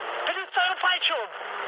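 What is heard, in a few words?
German bailout mood med, speech; War Thunder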